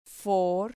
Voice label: "for", Spanish syllable

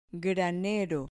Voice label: "granero", Spanish

